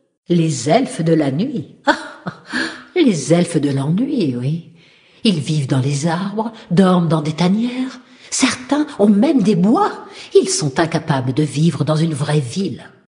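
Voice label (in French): Female Blague, voix françaises; Sacrenuit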